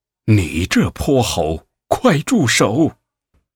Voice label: 男 人物; 唐僧

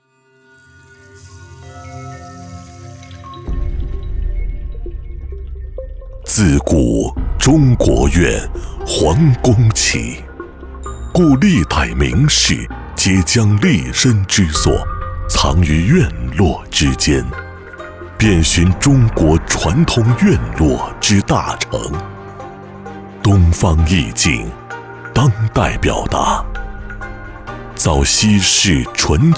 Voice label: 男 地产 磁性古典; 融创; 山水宸院; 房产宣传片案例

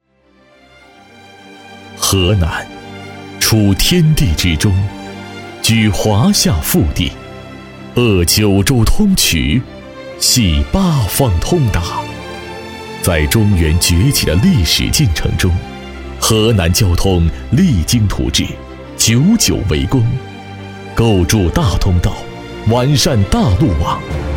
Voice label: 男, 专题, 企业, 公路局, 四好农村路; 河南公路局

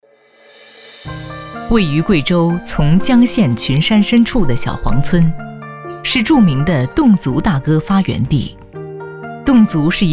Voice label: 女 非遗系列 侗族大歌

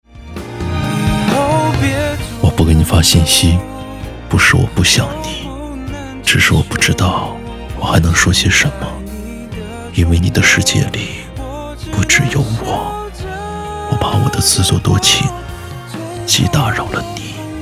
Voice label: 男 独白; 以后还是朋友 有始有终走到尽头

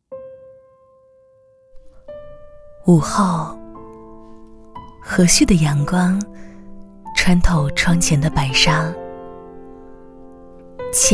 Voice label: 女 闲适温柔; 午后的时光

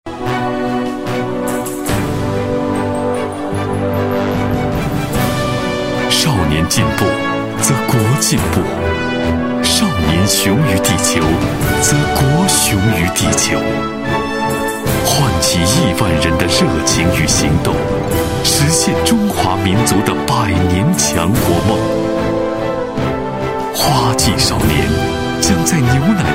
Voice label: 男 广告, 国语男声配音; 蒙牛形象宣传

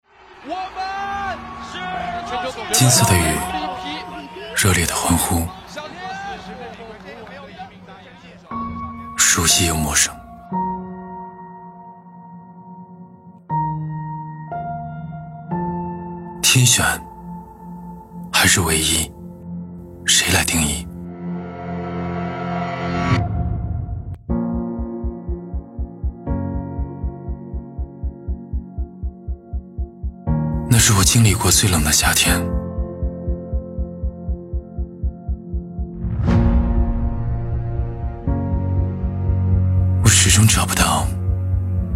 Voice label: 男 宣传片 电子竞技; 滔搏电子竞技

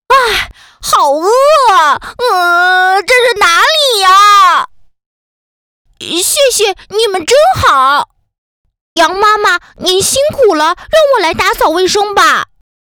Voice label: 女 童声 男童声

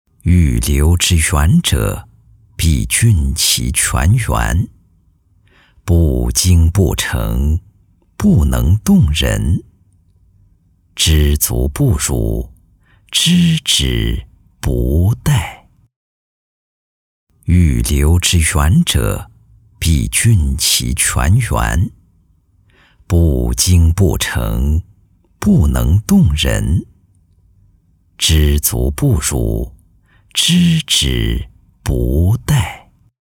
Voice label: 男 广告 诚信公益片

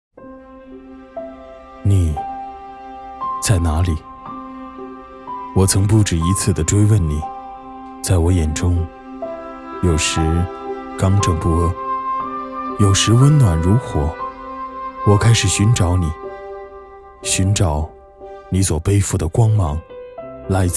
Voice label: 男 走心独白; 以你为名

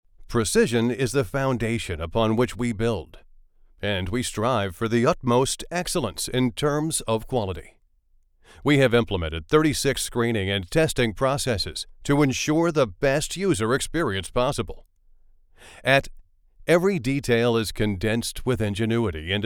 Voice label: 科技感宣传片

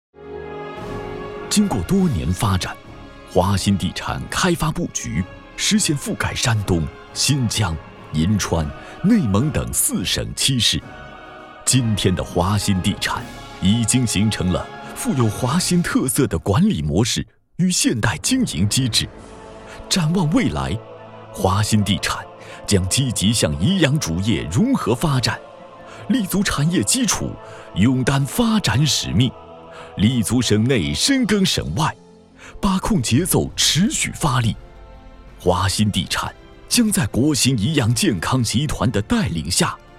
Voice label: 男 地产专题; 房产宣传片案例

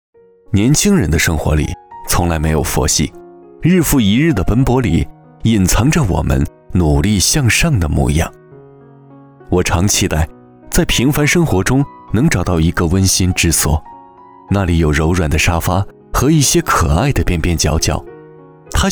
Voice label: 男 独白 自然讲述

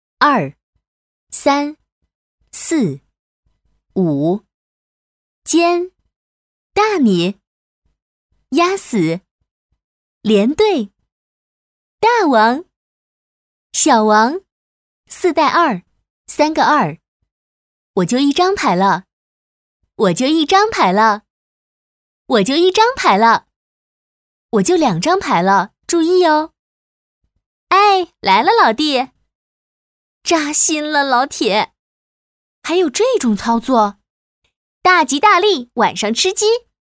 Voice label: 女 棋牌语音

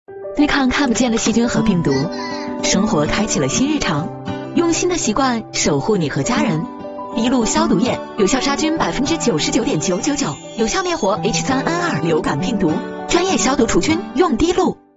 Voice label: 女 广告 TVC; 滴露

